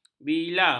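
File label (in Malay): "bila", Malay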